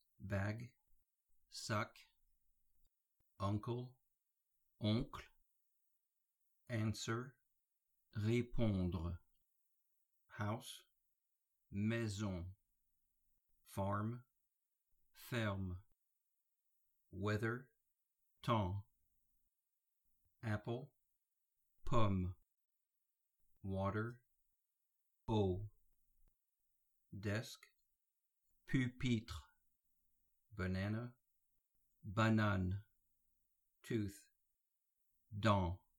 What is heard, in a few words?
English French words; Grade4